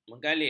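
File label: "megalit", Malay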